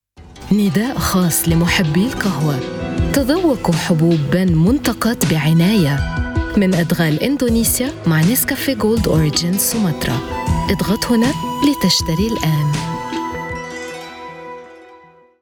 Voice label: Modern Standard Arabic Banner Ad; Nescafe Gold Sumatra

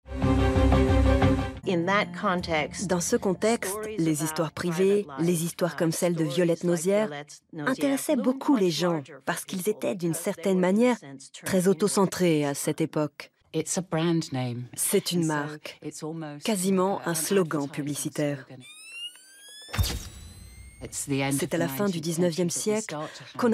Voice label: VOICE OVER

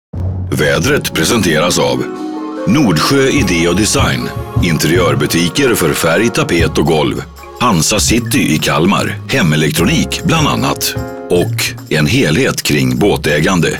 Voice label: Local Weather Prompts

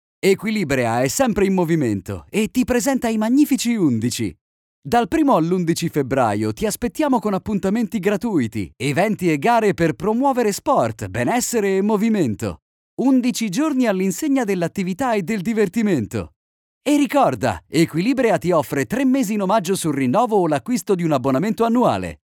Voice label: SPOT; Equilibrea

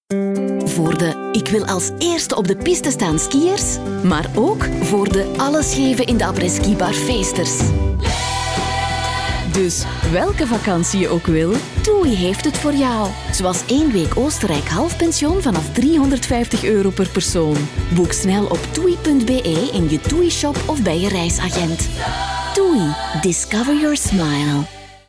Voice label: Radiocommercial inviting warm

